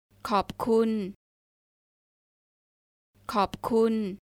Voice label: Thank you, Thai